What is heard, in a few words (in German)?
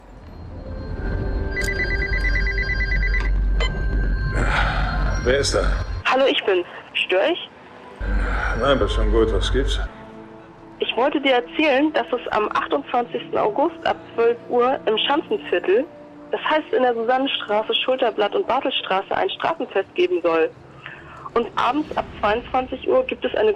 jingle aufruf zum schanzenfest; FSK